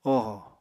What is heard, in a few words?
"ohò", Apatani